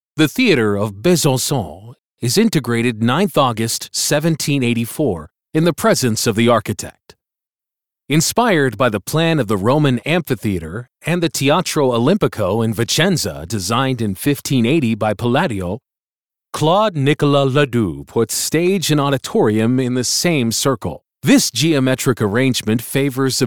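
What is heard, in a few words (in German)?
Dokumentarfilm Erzähler Breites Publikum; NATIVE PROFISPRECHER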